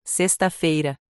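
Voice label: "Sexta-feira", Portuguese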